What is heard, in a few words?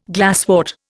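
"glassy", Indian; Dictionary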